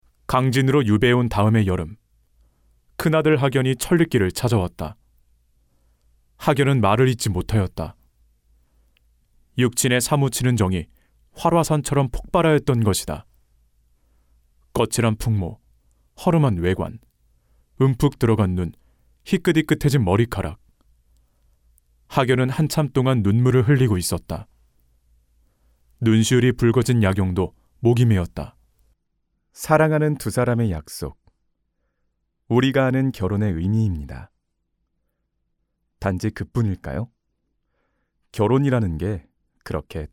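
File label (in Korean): 남성 (Male) 광고 나레이션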